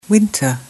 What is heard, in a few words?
"winter", English